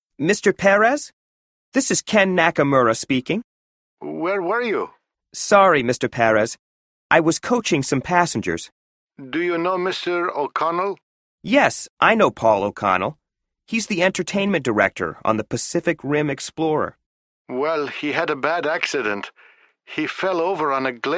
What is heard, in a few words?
Telephone, english listening survival